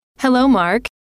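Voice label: hello, female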